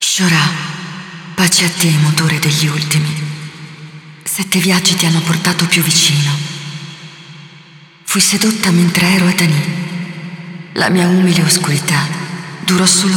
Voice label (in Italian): Speech, ita; Yeesha